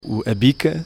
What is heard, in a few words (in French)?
"bica", prononciation